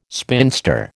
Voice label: "spinster", American